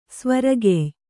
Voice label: "ಸ್ವರಗೆಯ್", Kannada

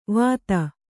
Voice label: "ವತ್ತಾ", Kannada

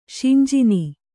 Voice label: "ಶಿಂಜಿನಿ", kannada

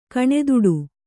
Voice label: "ಕಣ್ತಡೆ", Kannada

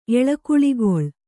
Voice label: "ಎಳೆಕುಳಿಗೊಳ್", Kannada

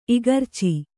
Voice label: "ಇಗರ್ಚಿ", Kannada

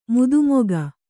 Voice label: "ಮದಮುಖ", Kannada

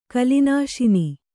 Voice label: "ಕಲಿನಾಶನ", Kannada

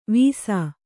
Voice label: "ವಿಸ", Kannada

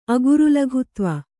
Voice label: "ಅಗುರುಲಘುತ್ವ", Kannada

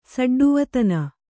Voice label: "ಸಡ್ಡುವತನ", Kannada

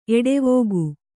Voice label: "ಎಡೆವುಗು", Kannada

